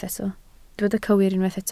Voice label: "cywir", Welsh